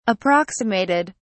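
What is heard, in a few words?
"approximated", British English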